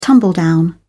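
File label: "tumbledown", British English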